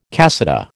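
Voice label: "cassata", American English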